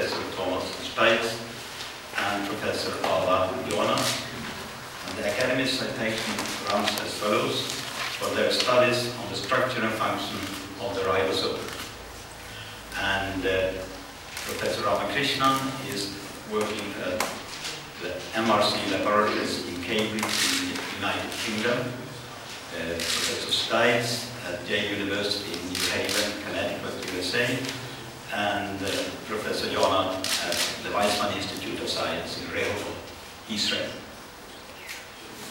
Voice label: Announcement Nobelprize Chemistry